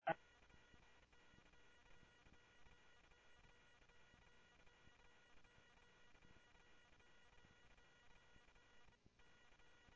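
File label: Phoenix police department, scanner; PHOENIX PD-200 A Phoenix PD; ThompsonPeakHigh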